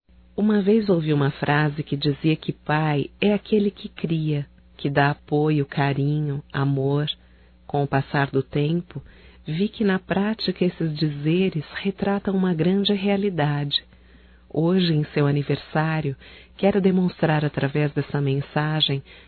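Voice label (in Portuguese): female, Telemensagens Aniversarios; Telemensagens 2017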